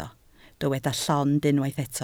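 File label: "llond" Welsh, spoken word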